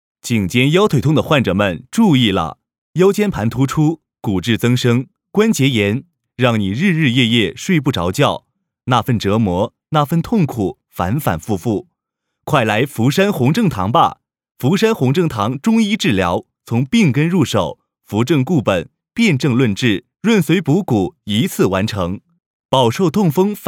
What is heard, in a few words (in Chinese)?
男 促销干音; 颈肩腰腿痛的患者们注意